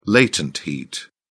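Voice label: "latent heat", British English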